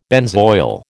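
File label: "benzoyl", American English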